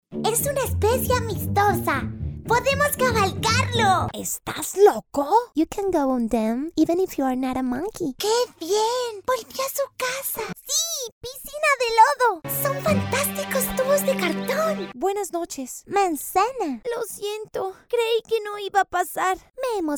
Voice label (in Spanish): Personajes infantiles voces y canto